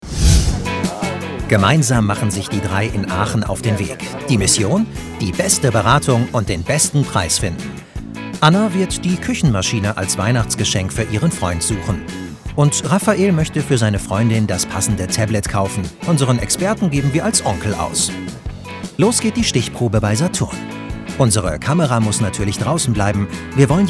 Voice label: Kommentar Freundlich

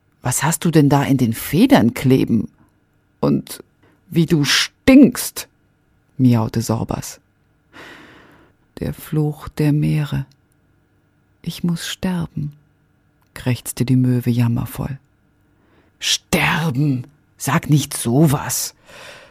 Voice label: Schauspiel Witzig

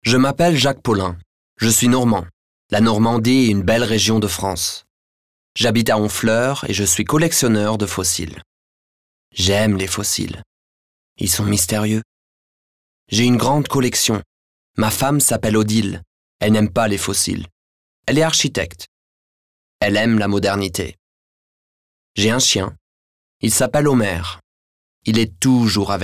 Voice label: Schauspiel Dynamisch; FR

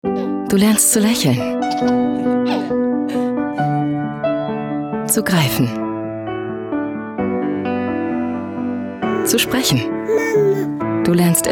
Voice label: Dynamisch; Fremdtake

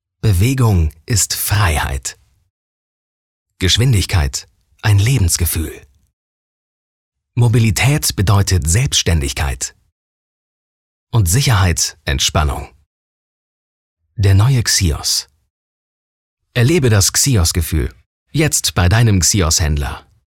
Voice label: Sanft; Xios

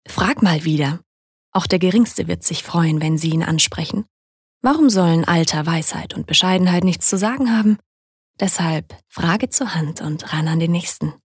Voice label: Werbung, Sanft

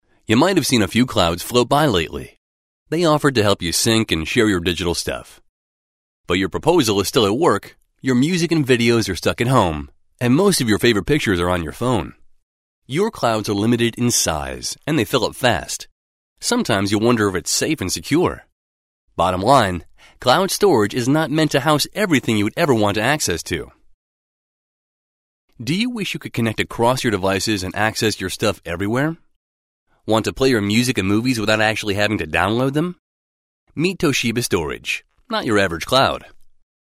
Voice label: Eng US Kommentar Freundlich

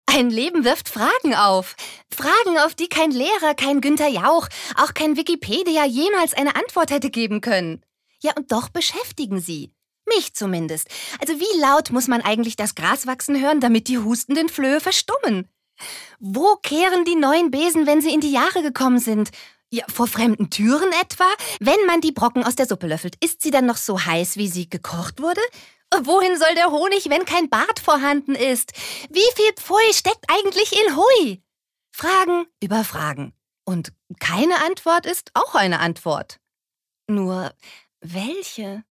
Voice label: Schauspiel Dynamisch

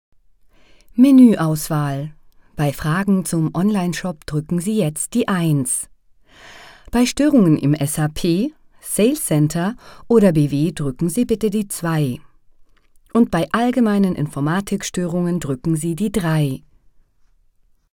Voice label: Kommentar Variabel

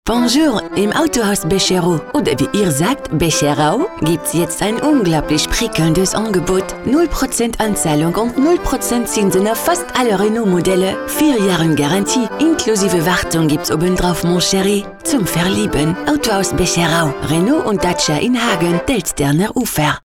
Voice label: Akzent Franzoesisch

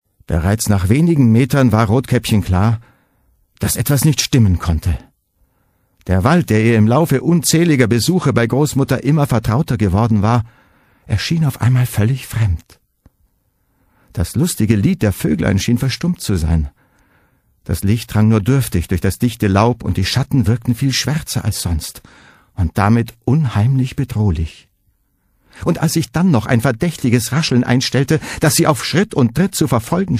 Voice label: Schauspiel Neutral

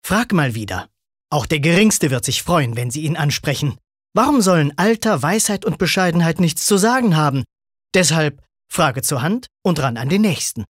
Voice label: Werbung Dynamisch

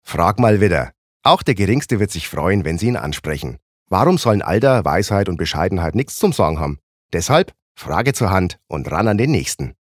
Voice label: Dialekt Fraenkisch

